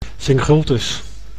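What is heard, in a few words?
"singultus", Dutch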